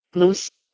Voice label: "hlus", Proto-Germanic